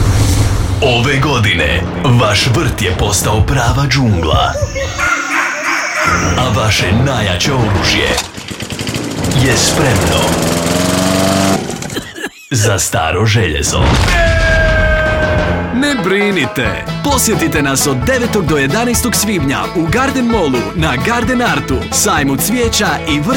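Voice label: Croatian, Characters; GoLocalise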